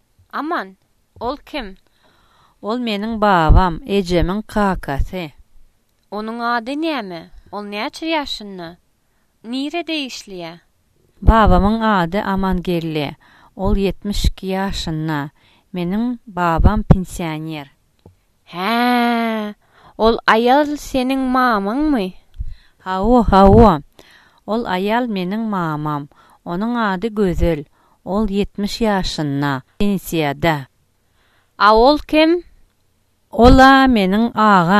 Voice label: dialogue mashgala, turkmen